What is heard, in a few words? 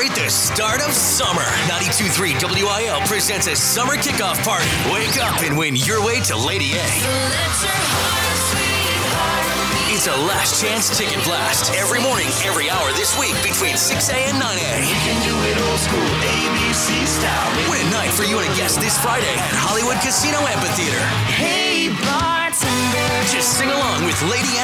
radio imaging men country